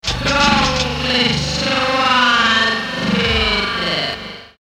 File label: dancehall stretch vox